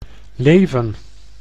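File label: "leven", Dutch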